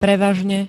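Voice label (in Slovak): "prevažne", Slovak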